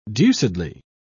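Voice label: "deucedly", British English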